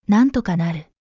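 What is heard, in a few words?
anime, Japanese, Hilarious Anime Quotes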